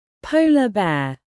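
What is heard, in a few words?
"polar bear", British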